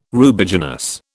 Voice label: "Rubiginous", British